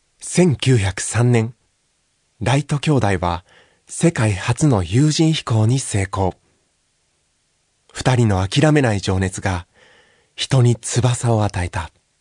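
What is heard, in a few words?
Narration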